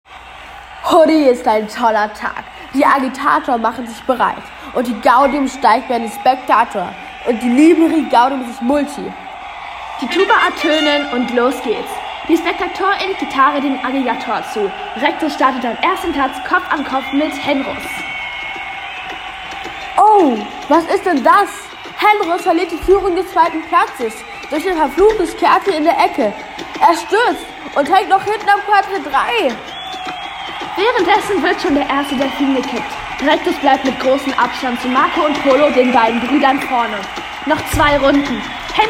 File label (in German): Stadionsprecher; Wagenrennen waren im alten Rom; lateinische Begriffe; Kommentare wurden sogar vertont; Carla und Augusta